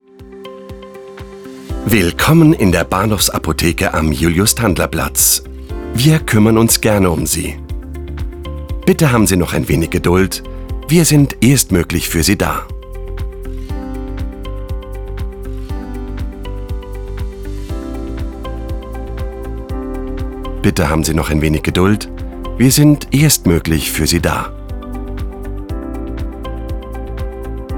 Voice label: Telefon Apotheke

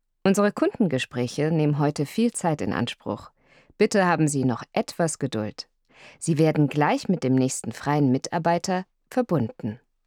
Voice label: Telefonansage

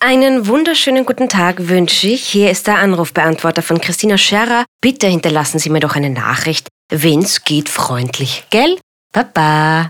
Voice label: Telefonansage DE

